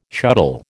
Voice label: "shuttle", American